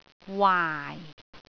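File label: "waai4", Cantonese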